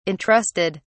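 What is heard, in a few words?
"entrusted", English, British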